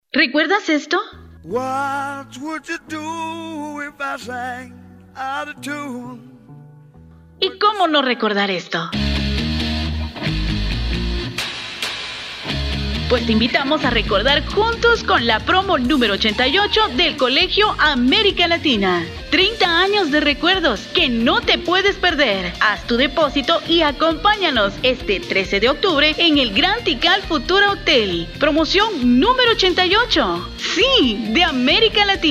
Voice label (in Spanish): anuncio